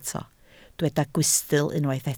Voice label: "gwystl", Welsh